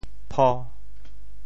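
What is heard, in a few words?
"pho1", Teochew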